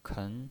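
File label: "ken", second tone, pinyin voice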